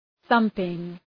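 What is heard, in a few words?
"thumping", English; {‘ɵʌmpıŋ}; (Επίθετο)● πελώριος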